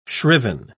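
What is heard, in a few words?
"shriven", British English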